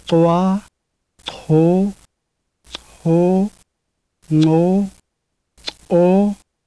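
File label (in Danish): nama, dental, clicks, alle ordene har højtone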